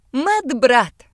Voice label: nurse male; gcompris

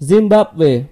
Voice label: "zimbabwe", id; gcompris-data; geography

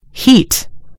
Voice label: "heat", en GB; gcompris

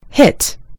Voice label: "hit", en GB; gcompris

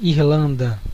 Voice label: "ireland", pt BR; gcompris; geography

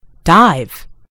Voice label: "dive", en GB; gcompris

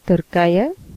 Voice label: "turkey", af; gcompris; geography

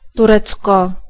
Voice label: "turkey", cs; gcompris; geography

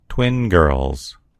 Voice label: "twin girls", en US; gcompris

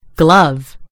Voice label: "glove", en GB; gcompris

